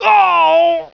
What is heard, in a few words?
pain; fgrunt